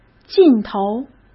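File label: "劲头", Mandarin Chinese; hsk; chinese-flashcards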